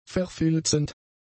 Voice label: "verfilzend", German; adjectives